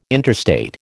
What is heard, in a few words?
"interstate", American